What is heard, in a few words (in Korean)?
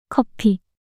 "커피" 단어 여자